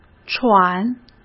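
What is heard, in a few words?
"喘", Mandarin Chinese; chinese-flashcards; hsk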